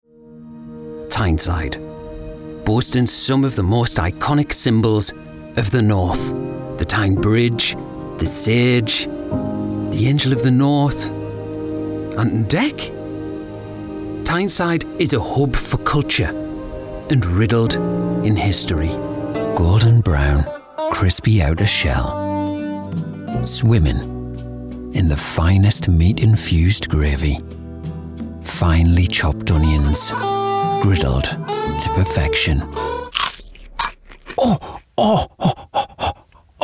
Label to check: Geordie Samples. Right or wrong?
Right